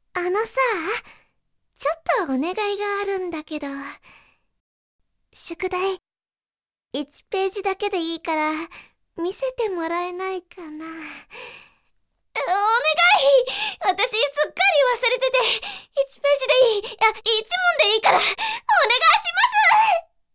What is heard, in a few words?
girl syukudai